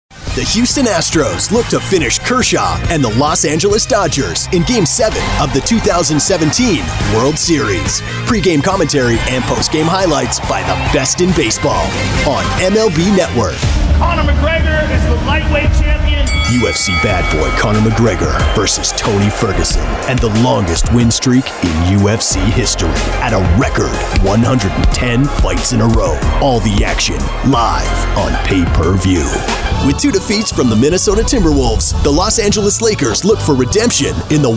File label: SPORTS PROMO